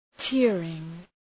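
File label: "tearing", English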